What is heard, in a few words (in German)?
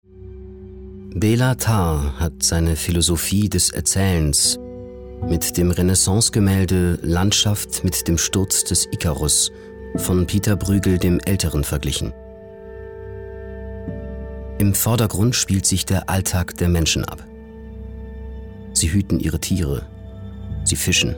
reportage